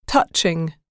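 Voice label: "touching", English word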